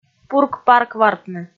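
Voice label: "пурк-парквартны", Komi, female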